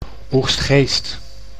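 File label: "Oegstgeest", Dutch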